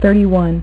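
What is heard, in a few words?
"thirty-one", female; MacCribbage; InterStellar MacCribbage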